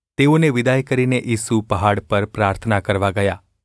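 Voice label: gu ulb mrk, Gujarati; mrk 6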